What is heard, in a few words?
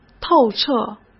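"透彻", Mandarin Chinese; chinese flashcards; hsk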